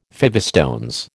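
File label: "fivestones", American English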